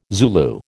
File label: "Zulu", American